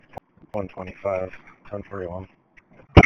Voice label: Police Sheriff Dispatch